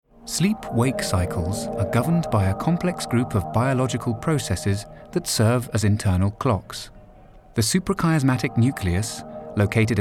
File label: Natural Corporate Medical